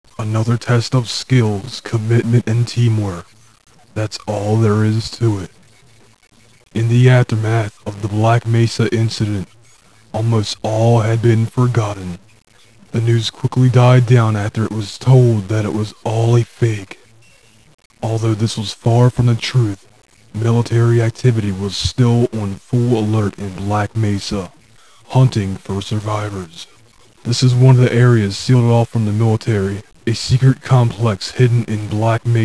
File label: narrator